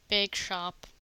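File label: "bakeshop", US English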